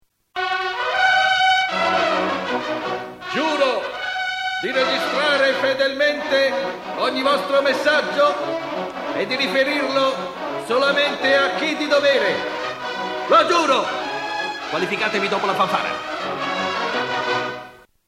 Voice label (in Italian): Messaggi per la Segreteria Telefonica - Segreteria patriottica